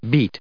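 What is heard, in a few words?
"beat", English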